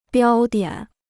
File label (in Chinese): "标点", Chinese